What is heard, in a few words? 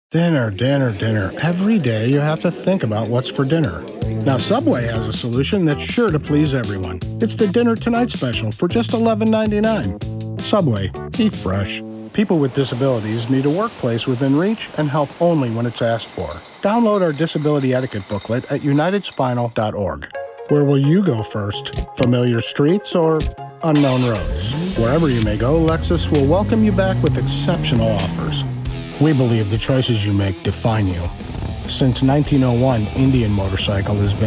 Commercial